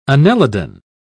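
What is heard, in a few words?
"annelidan", British English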